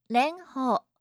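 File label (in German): "renho", japanische Mädchennamen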